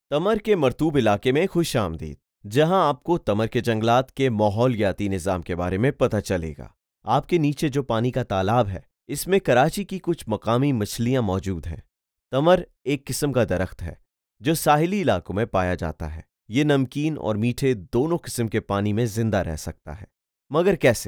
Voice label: Urdu, audio guides; براہ کرم اپنی پسندیدہ زبان منتخب کریں۔; Please select your preferred language.